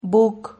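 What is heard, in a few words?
"buc", Spanish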